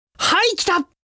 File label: Vo Male Hikita; gekiban